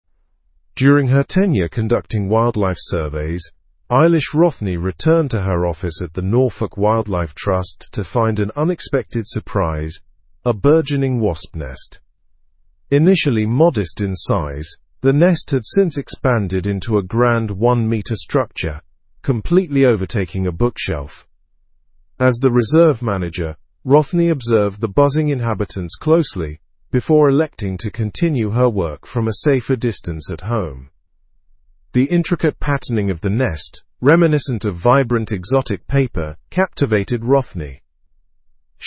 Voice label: learn english through news advanced normal; norfolk wildlife office overtaken by wasp nest

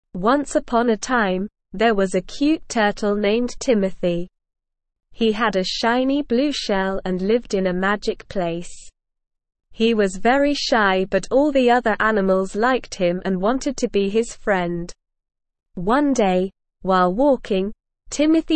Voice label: ESL Short Stories for Kids Beginner SLOW Reading; The Tale of the Turquoise Tortoise

